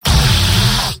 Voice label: scout jeers; mvm